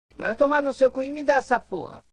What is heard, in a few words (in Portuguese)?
voz de ia; chaves